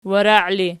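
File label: "warai" ergative, Archi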